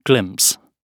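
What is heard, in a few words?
"glimpse", British English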